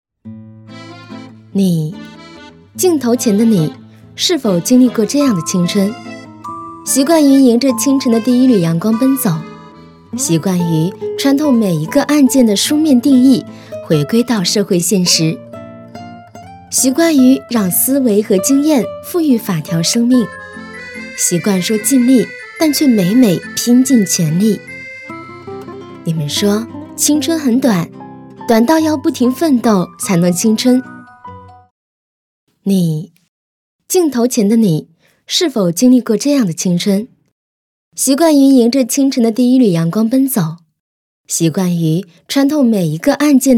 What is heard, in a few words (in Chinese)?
旁白; 律师事务所